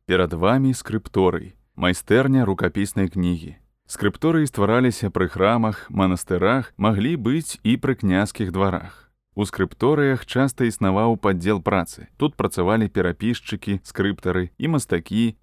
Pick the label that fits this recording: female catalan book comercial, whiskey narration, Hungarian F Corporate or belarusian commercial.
belarusian commercial